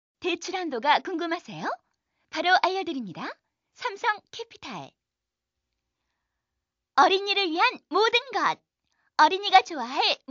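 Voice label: Korean, female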